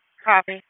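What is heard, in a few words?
Police Downtown District Dispatch, p25; Raleigh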